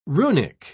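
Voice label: "runic", British English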